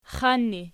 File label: xan erg, archi